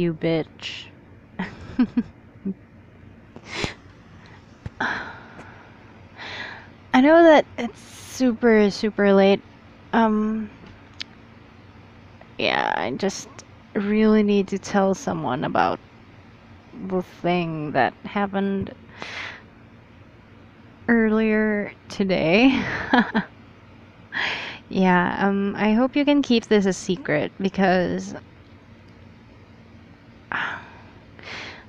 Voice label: F4A Lunchtime with a random doggie beast voicemail narration rambly rolling around in bed